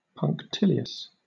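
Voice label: "punctilious", British English; pronunciations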